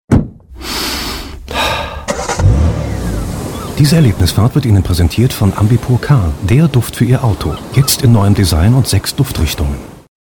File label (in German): Car Werbung; Ambi Pur